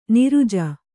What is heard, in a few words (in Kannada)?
"ನಿರುಜ", Kannada; niruja; noun; a healthy man.